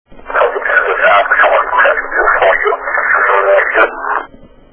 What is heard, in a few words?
Phone Message; EVP; This is an answering machine message, unknown name, unknown number